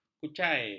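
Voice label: "kucai", Malay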